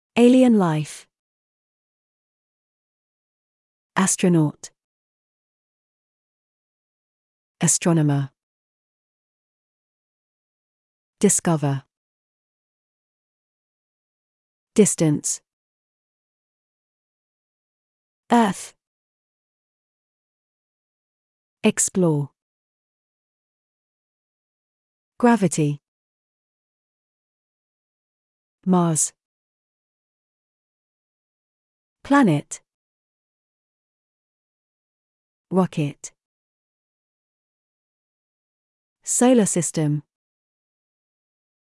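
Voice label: British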